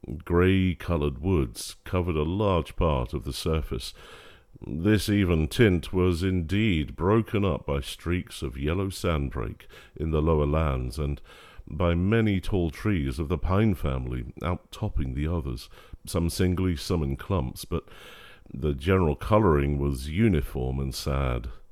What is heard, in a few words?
vo dry